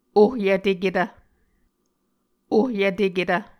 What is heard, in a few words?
"ohyvteketv", Muscogee